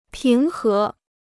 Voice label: "平和", Chinese

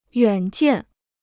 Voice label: "远见", Chinese

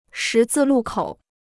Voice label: "十字路口", Chinese

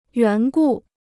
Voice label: "缘故", Chinese

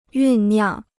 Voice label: "酝酿", Chinese

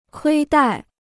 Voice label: "亏待", Chinese

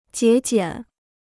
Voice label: "节俭", Chinese; jié jiǎn; frugal; economical

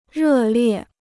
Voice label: "热烈", Chinese

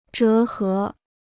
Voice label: "折合", Chinese

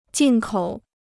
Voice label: "进口", Chinese

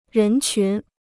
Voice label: "人群", Chinese

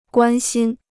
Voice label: "关心", Chinese

